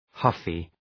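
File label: "huffy", English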